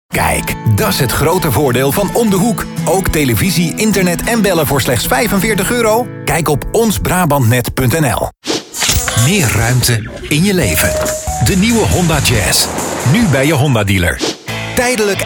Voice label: commercial